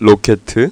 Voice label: rocket, kor; My Animated Word Book

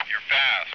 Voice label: Fast; F/A-18 Hornet 2.0 Demo

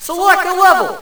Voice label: Announcer Level; BREAKIN BATTLE

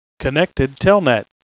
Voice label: Connected Telnet (Male); q95demo

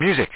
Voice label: w music; JSF demo

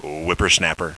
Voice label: unhappy sad - wipper snapper!; Spades Deluxe 1.6

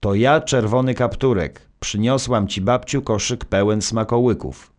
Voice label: narr; Szkola Koziolka; CyberMycha 2003 October

